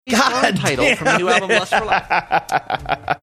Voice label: saying goddamn it laughing

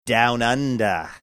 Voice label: saying down under faux australian

